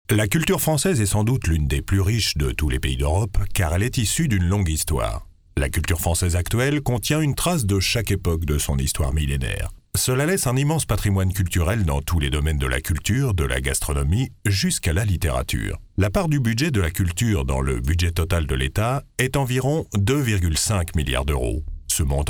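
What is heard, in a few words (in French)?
French, male, narration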